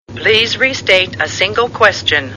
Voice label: please restate a single question, computer voice